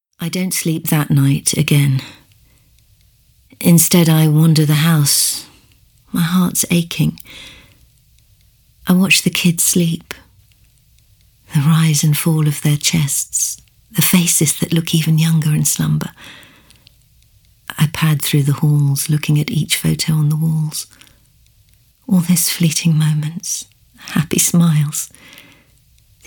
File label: Audiobook